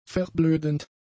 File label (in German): "verblödend", German; adjectives